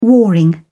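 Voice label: "warring", British English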